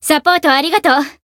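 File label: healed, Japanese; kikyou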